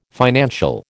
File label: "financial", American